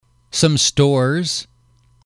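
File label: "some stores", English, male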